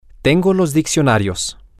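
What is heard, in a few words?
I have the dictionaries, Spanish, male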